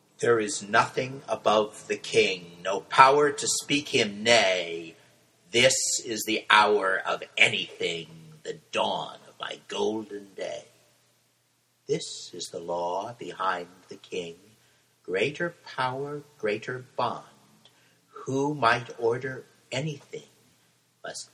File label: Two Voices